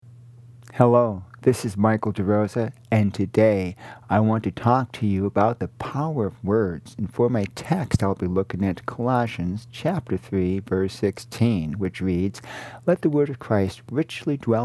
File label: Radio Sermonette; Words; Colosians 3 16